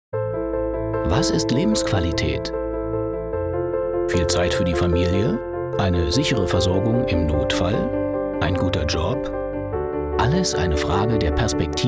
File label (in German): spot bundesregierung